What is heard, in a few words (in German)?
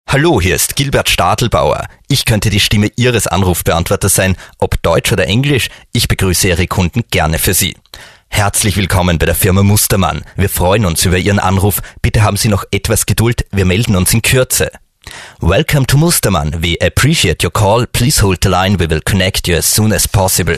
Sprecher Telefonansagen